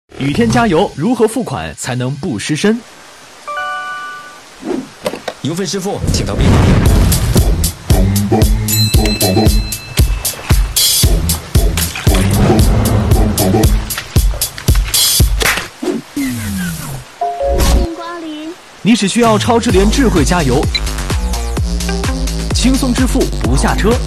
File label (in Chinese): 抖音信息流; 东风日产